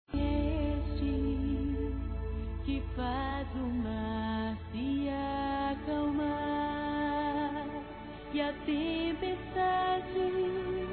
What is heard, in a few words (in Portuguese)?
NATAL E ANO NOVO PARA IRMÃO, masc; Misto